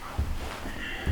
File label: whisper